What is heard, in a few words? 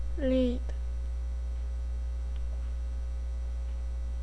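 "lead", spoken word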